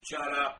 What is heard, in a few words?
mexican shut up